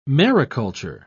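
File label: "mariculture", English, British English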